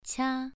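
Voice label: "qia", tone 2, pronunciation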